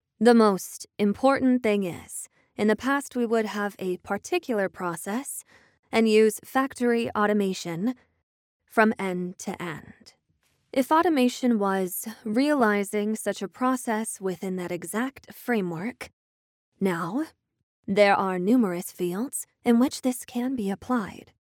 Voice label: english american voiceover locutora norteamericana